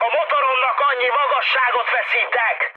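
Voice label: Hungarian damaged engine mood high, speech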